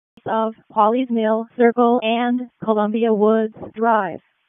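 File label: Raleigh Fire Department ALERT, p25; RFD ALERT; wake p25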